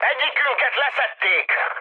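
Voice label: Hungarian aircraft destroyed mood high, speech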